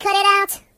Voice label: Cut it out, Singer